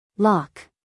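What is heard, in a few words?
"loch", American English, female; everyone-can-use-english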